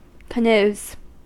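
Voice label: "canoes" English, American accent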